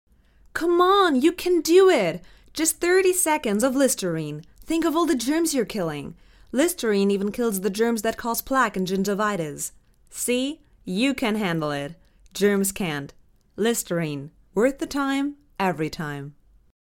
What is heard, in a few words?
COMMERCIAL US; LISTERINE